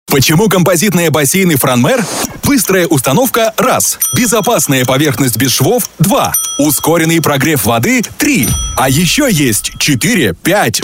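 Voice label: Russian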